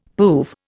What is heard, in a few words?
"poove", Indian; Dictionary